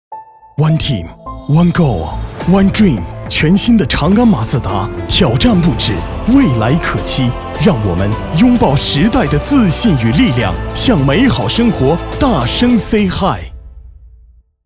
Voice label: 男 广告 车; 长安马自达; 汽车tvc广告

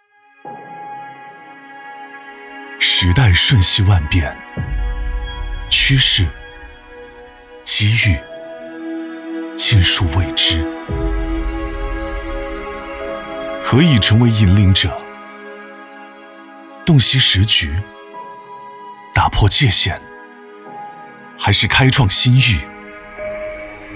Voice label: 男 专题科技品质; 走心企业形象片样音; 奔驰

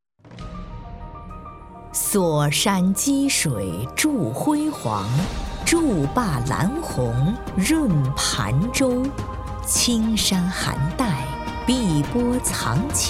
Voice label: 女 景色类 辽阔大气; 出水洞水库

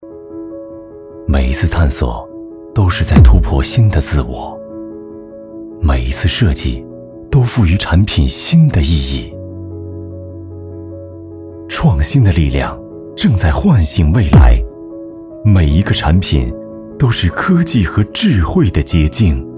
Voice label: 男 大音 科技与智慧

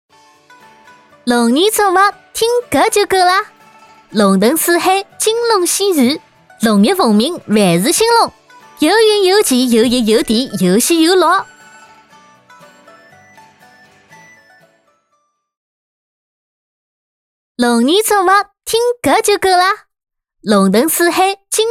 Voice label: 上海方言 女 年轻女上海话 龙年祝福; 方言配音; XPG

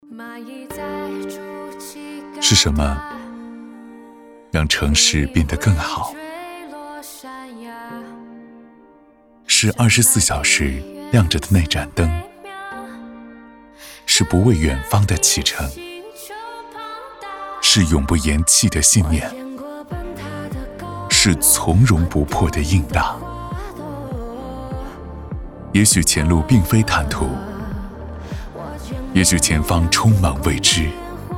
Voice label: 男 形象片; 走心企业形象片样音; 三江集团形象片

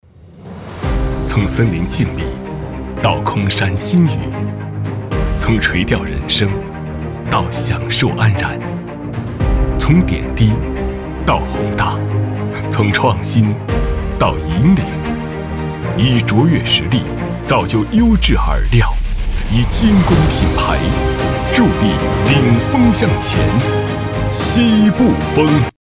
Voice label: 男 西部风企业形象宣传片; 走心企业形象片样音

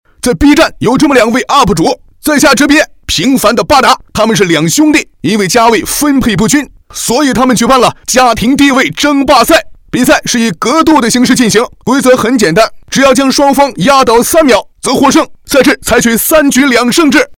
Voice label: 男, up主解说; B站

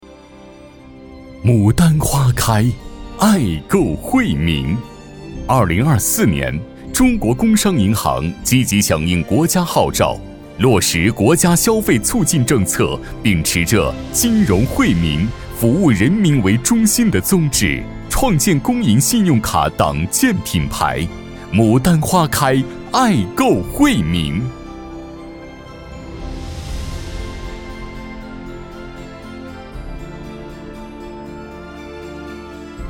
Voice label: 男, 银行宣传样音; 中国工商银行

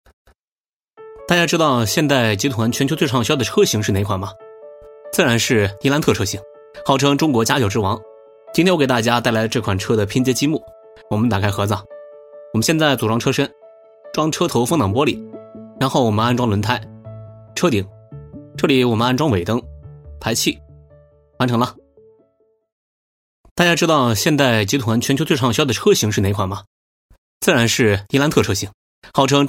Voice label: 男国 素人 测评; up主解说; 测评伊兰特车型拼接积木

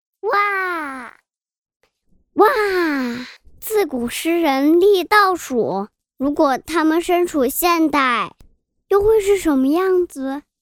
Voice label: 男 男真童; 真童配音

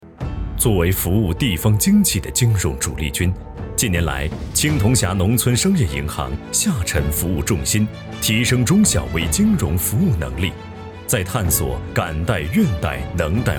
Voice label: 男 农村商业银行, 银行宣传样音

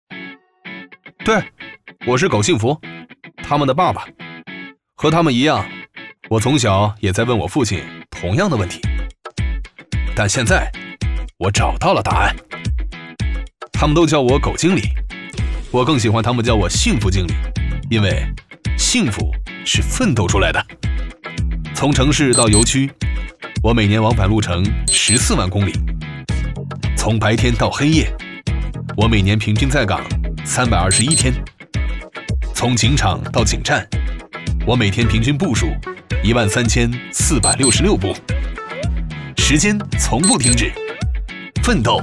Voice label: 男 素人 活力; 素人风格男声

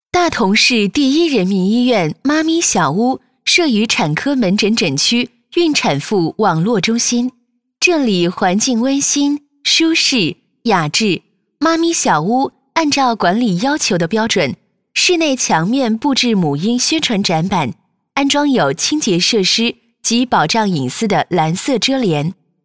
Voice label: 女 国; 大同市第一人民医院“妈咪小屋”设于产科门诊诊区孕产妇网络中心，这里环境温馨、舒适、雅致。“妈咪小屋”

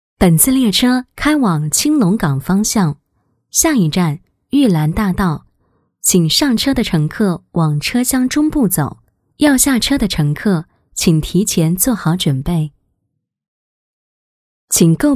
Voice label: 女国 地铁 本次列车开往青龙岗方向，下一站玉兰大道，; 广播提示类; 女声广播提示; 合肥地铁

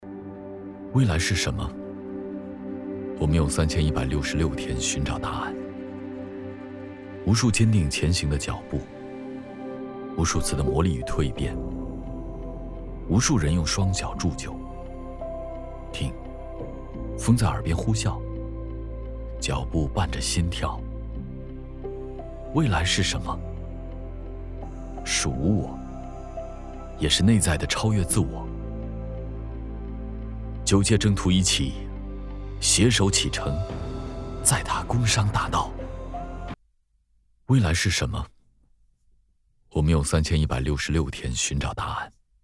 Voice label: 男 素人 走心独白; 素人风格男声; 未来是什么

